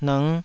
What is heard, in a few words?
"nng5", Taiwanese syllable